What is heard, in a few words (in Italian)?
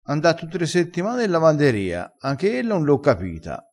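"ella", vocabolario dialettale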